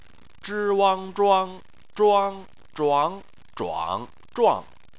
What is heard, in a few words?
"zhuang", Chinese